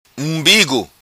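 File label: "Umbigo", patua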